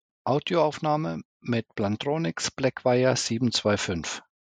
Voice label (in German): Audio Aufnahme Plantronics Blackwire 725